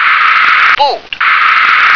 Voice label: "baud", English